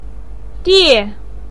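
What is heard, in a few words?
"die" Mandarin Chinese, fourth tone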